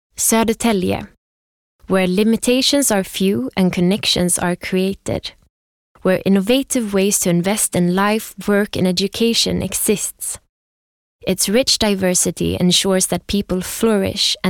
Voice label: se f scandinavian english